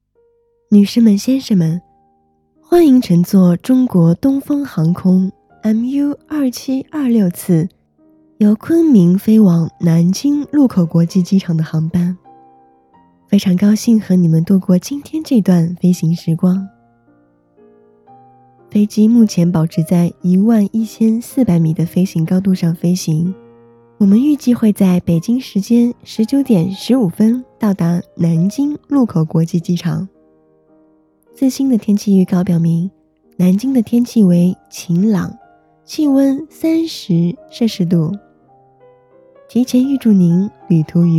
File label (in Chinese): 机上广播温柔